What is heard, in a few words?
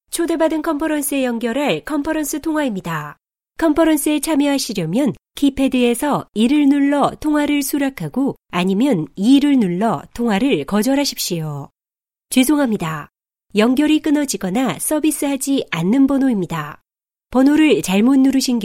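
Korean, female, IVR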